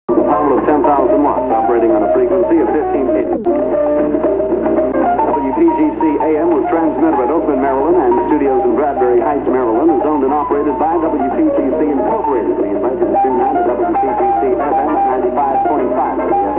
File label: am sign off announcement; 1966